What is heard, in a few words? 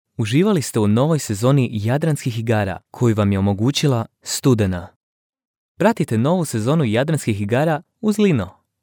announcements, croatian